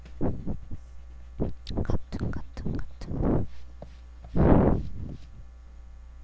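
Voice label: "cartoon", spoken word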